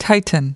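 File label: "tighten", US English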